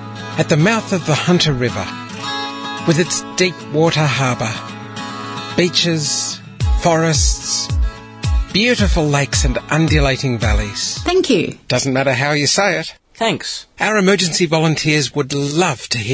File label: voice demo